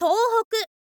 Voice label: "touhoku", info girl voice